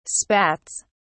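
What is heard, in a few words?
"spats", British English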